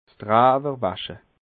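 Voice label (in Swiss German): "drewerwasche", Alsatian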